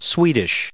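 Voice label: "Swedish", US English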